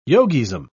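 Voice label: "yogism", British English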